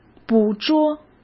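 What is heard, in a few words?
"捕捉", Mandarin Chinese; hsk; chinese-flashcards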